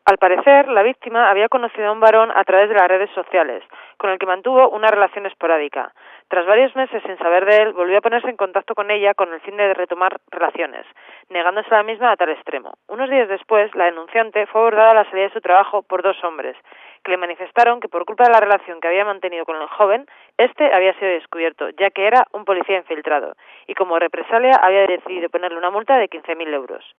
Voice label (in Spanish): noticias